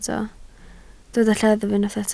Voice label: "lleddf", Welsh